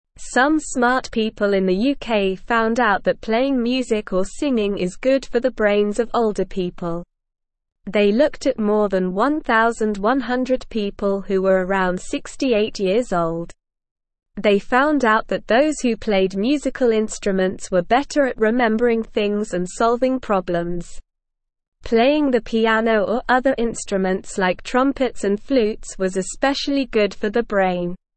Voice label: English Beginner SLOW Reading; English Newsroom; Playing Music Helps Older Brains Stay Healthy

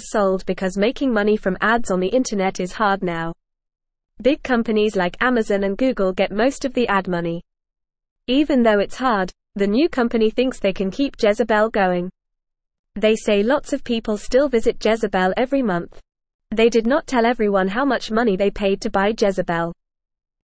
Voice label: Beginner FAST Reading, English; Jezebel is Coming Back New Company Buys It; English Newsroom

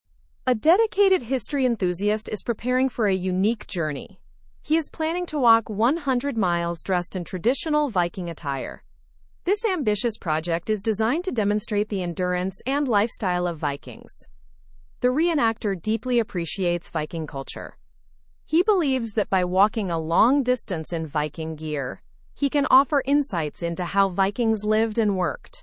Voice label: english through news upper intermediate; history enthusiast plans 100 mile viking walk

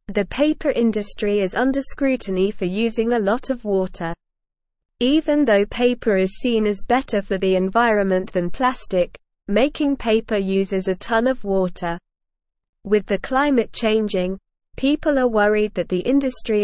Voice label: English Upper Intermediate SLOW Reading; English Newsroom

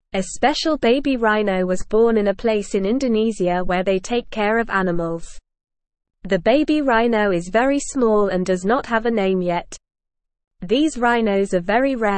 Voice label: English Lower Intermediate NORMAL Reading; Baby Sumatran Rhino Brings Hope for Rare Animals

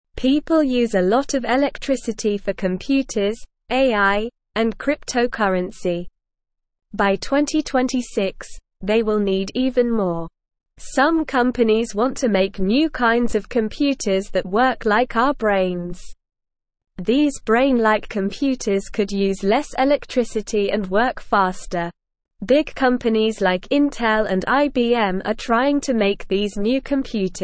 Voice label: English Newsroom Beginner SLOW Reading; Brain like Computers Faster Energy saving Future for AI

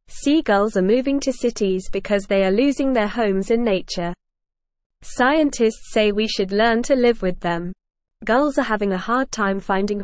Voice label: English NORMAL Reading; Seagulls are moving to cities and need our help

